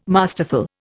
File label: "masterful", Indian